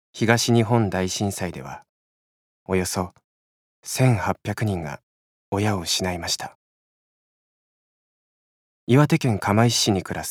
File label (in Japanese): ドキュメンタリー番組冒頭; ナチュラルナレーション